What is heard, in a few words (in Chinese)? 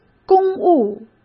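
"公务", Mandarin Chinese; chinese-flashcards; hsk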